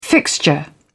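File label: "fixture", British English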